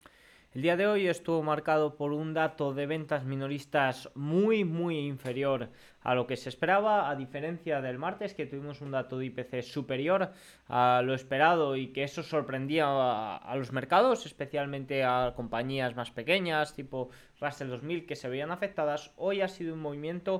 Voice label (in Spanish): radio; noticias bolsa; las ventas minoristas impulsan a las pequenas companias